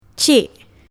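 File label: "cik", Malay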